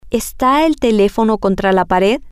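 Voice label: is the telephone against the wall, Spanish, female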